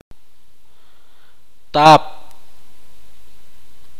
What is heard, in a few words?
"sal", itza